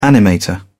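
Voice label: "animator", British English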